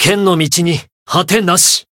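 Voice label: growth, Japanese; shion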